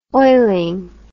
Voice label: "oiling", English

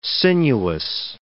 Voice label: "sinuous", English

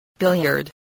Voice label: "billiard", English

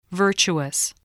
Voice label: "virtuous", English

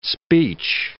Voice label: "speech", English

